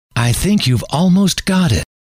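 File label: i think you ve almost got it, male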